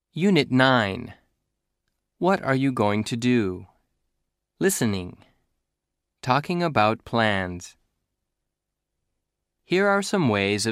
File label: usa man